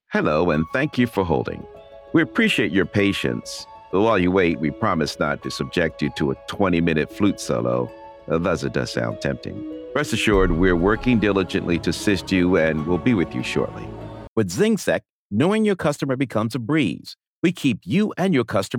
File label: IVR Sample, Male Voice; Phone Greetings / On Hold